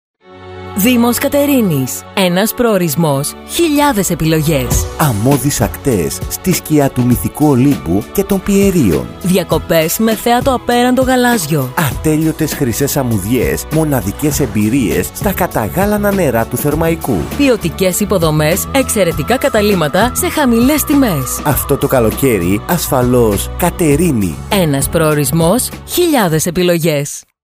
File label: RADIOSPOT; ΔΗΜΟΣ ΚΑΤΕΡΙΝΗΣ